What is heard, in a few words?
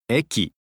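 "eki", Japanese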